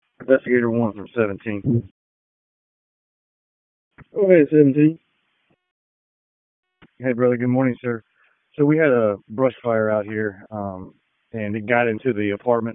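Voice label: FIRE Operations, p25; FIRE OPS 1; wake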